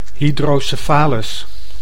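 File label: "hydrocephalus", Dutch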